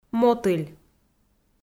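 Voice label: "мотыль" Komi, female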